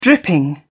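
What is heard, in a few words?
"dripping", British English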